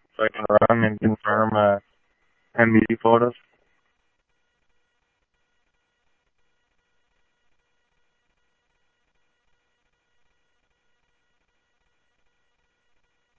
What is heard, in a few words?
Tempe Police, scanner; SimulcastF-Tempe